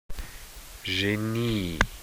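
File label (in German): "Genie", German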